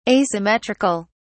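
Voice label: "asymmetric(al)", British English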